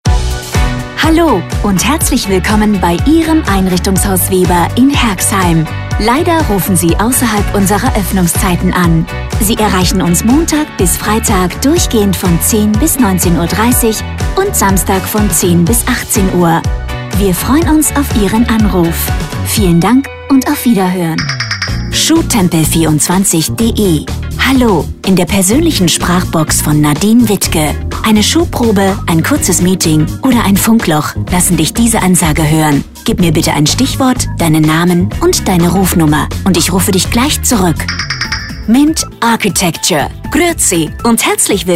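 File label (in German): telefonansagen sprecher German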